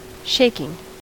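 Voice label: "shaking", US English; pronunciations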